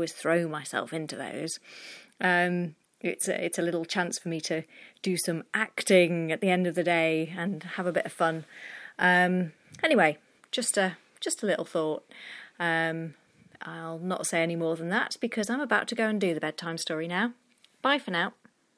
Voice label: stockport accent getting stronger